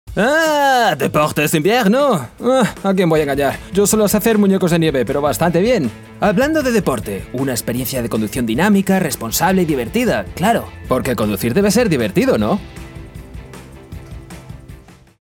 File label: dubbing funny